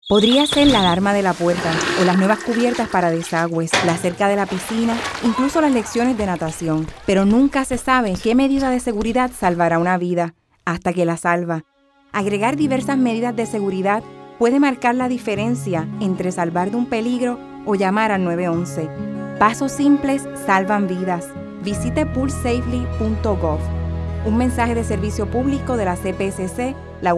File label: POOL SAFELY RADIO SPANISH